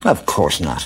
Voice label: "of course not" male; Voice; expletives

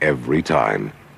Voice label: announcer

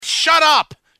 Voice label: shut up male; Voice; expletives

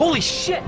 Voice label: "holy shit", expletives

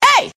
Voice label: "hey", Voice

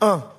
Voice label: "uh", male

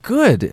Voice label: "good", Voice; expletives

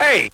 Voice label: "hey" male

